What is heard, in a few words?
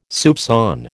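"soupçon", American English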